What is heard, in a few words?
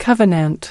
"covenant", us